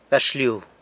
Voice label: "gaxlyu", San Lucas Quiavini